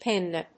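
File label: "pinna", English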